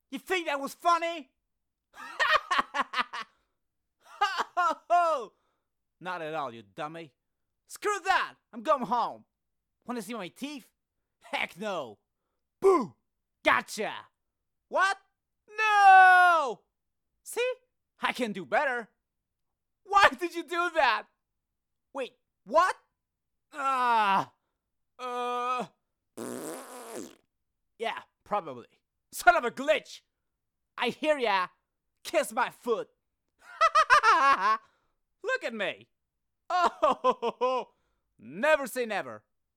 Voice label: Stupid Lines And Laughs